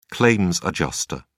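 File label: "claims adjuster", British English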